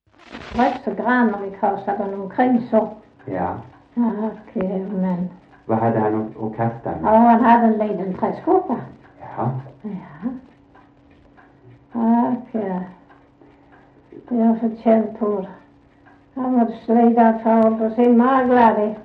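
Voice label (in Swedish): Sövde Skåne, Svenska sagor, dialekter; Folkminnen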